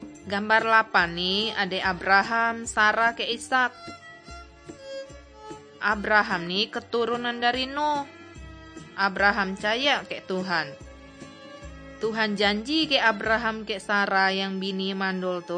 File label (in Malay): kabar baik good news malay bangka